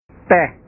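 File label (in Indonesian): "teh", Indonesian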